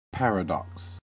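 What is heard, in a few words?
"paradox", American English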